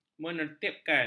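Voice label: "menertibkan", Malay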